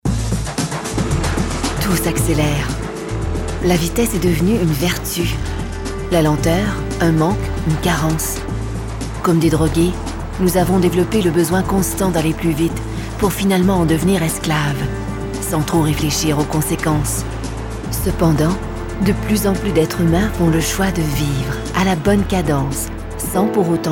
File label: french canada narration; Intimate and airy French Canadian voice.